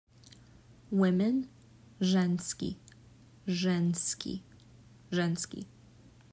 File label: Women, Serbian talking phrasebook